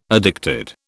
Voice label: "addicted", British